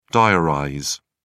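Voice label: "diarize", British English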